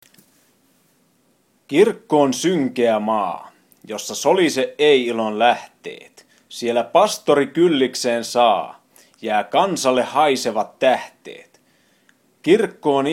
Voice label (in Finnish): markiva paise, poetry